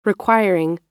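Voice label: "requiring", American English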